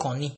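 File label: "cunny" Nigerian Pidgin, male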